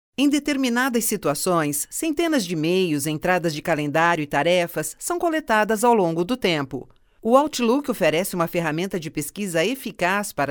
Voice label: locutora brasil brazilian voiceovers, locutora brasileña